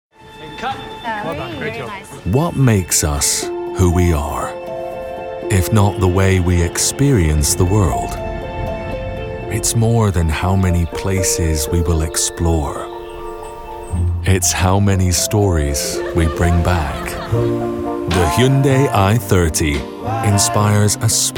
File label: english international commercial